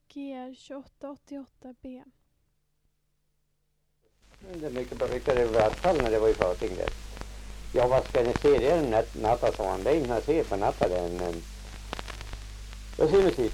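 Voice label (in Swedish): Ekshärad Värmland, Svenska sagor, dialekter; Folkminnen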